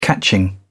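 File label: "catching", British English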